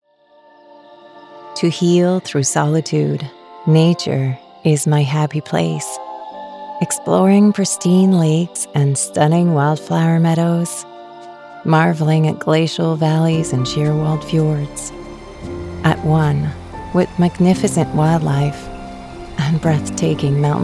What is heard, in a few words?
Commercial; Parks Canada